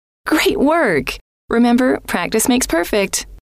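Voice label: great Work Remember Practice Makes Perfect, female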